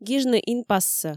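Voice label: "гижны инпассӧ", Komi, female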